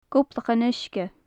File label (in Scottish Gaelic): "gobhlachan-uisge", gaelic; dipper; gobha-uisge; loin-uisge; faclan nadair